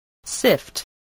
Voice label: "sift", spelling

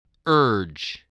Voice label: "urge", English

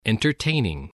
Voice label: "entertaining", voice, english

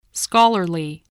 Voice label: "scholarly", english spelling

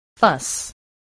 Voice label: "fuss", voice, English

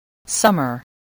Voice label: "summer", spelling

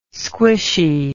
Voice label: "squishy", english

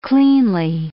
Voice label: "cleanly", English

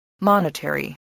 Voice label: "monetary", voice, spelling

